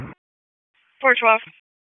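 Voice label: Police Dispatch, p25; wake p25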